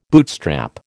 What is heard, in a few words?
"bootstrap", American